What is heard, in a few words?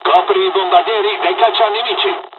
bombers cover, dialogs italian; War Thunder